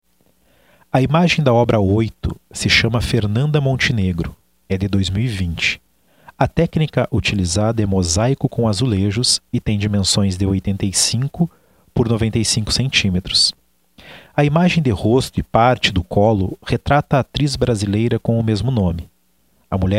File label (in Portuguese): audiodescrição; galeria virtual